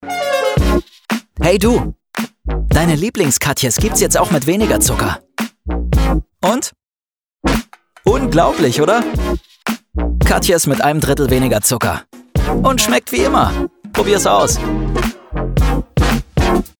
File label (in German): TV-Spot; Katjes